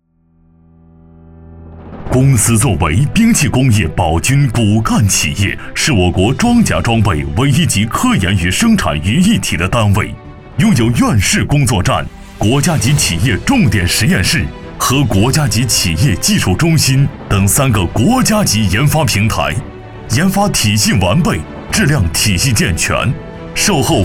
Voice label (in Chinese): 男 宣传 兵器工业保军骨干企业